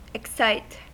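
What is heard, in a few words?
"excite", US English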